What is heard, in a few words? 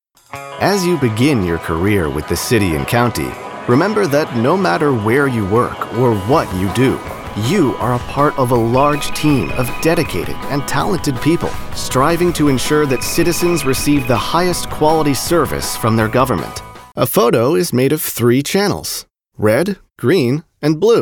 Narration Demo Mix